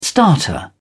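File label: "starter motor", British English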